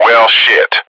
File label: yikes, radio bot